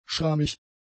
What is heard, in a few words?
"schrammig", German; adjectives